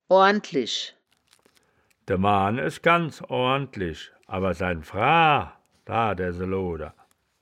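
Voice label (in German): "oorndlich", Wittlicher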